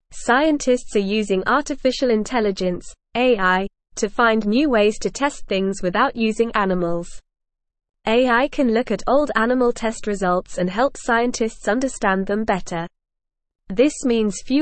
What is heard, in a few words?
English NORMAL Reading